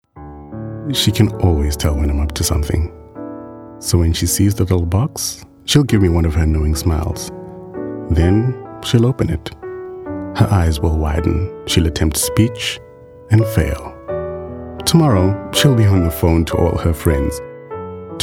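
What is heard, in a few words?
Soft Sell voice over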